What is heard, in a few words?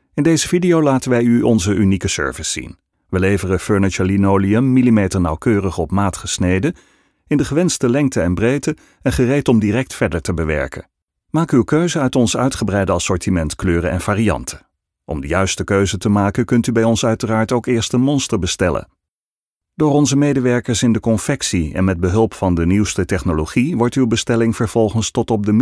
vo natural speech